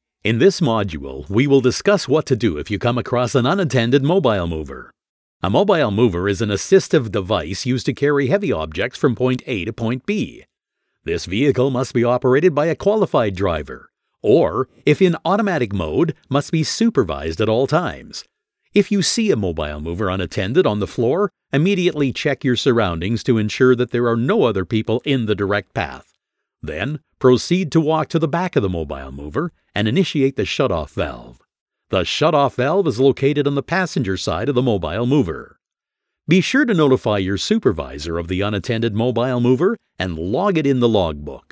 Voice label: english american others

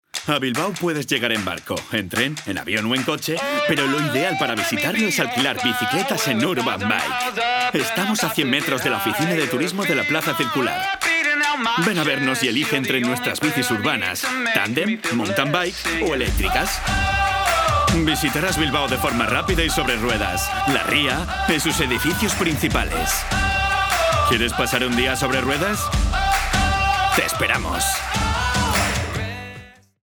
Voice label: spanish promos

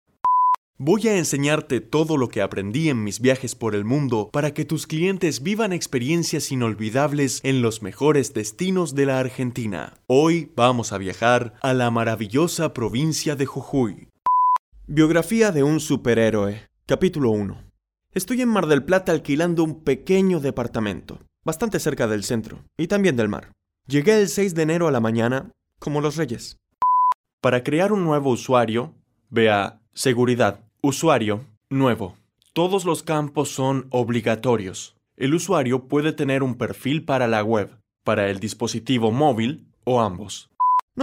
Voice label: spanish argentinian narration

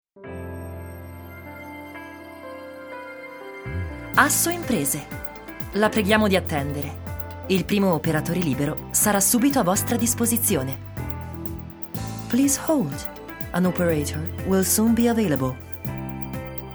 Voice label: italian ivr, italian voice actors

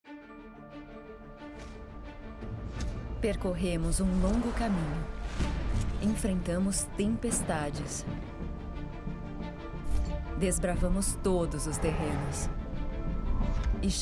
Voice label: portuguese brazilian corporate

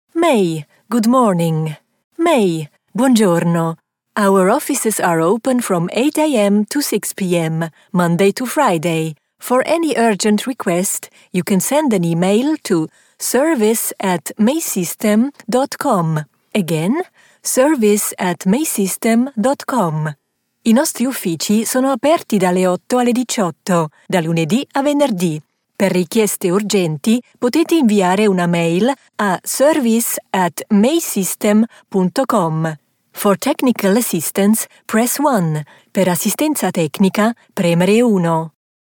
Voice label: english commercial

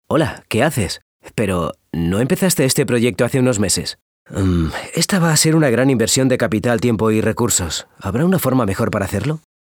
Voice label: spanish castilian training e-learning

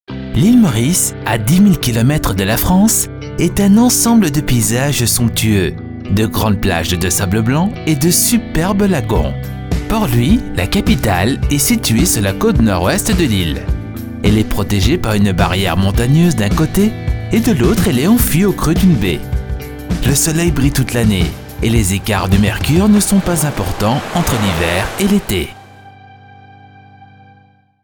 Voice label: French documentaries

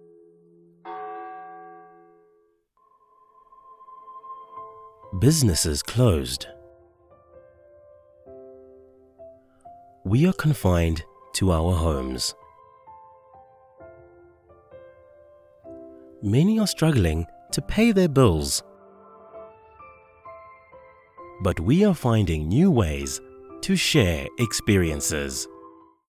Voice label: English South African narration; professioneller Voice-Over-Artist und Produzent

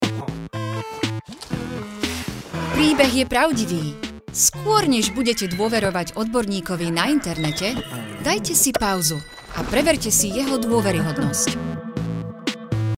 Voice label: slovak corporate videos

